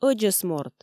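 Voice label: "ӧдзӧсморт", Komi, female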